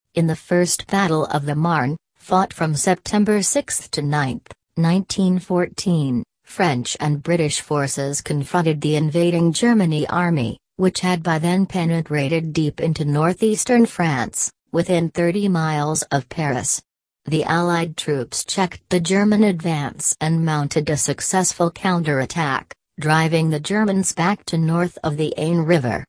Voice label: read aloud